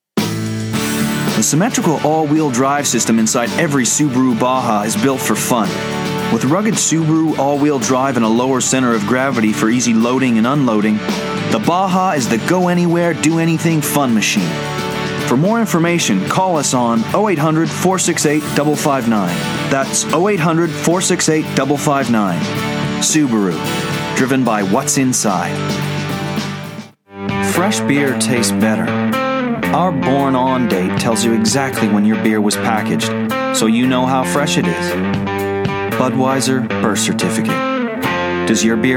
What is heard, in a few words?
commercial